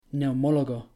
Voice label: "neumólogo", Spanish; natural stress in spanish

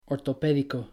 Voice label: "ortopédico", Spanish; natural stress in spanish

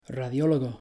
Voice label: "radiólogo", Spanish; natural stress in spanish

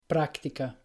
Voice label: "práctica", Spanish; natural stress in spanish

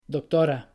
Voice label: "doctora", Spanish; natural stress in spanish

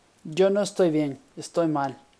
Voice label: saludos, basic greetings in spanish

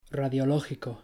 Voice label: "radiológico", Spanish; natural stress in spanish

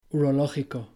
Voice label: "urológico", Spanish; natural stress in spanish

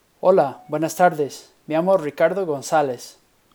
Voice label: saludos, basic greetings in spanish

